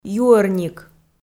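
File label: "юӧрник", Komi, female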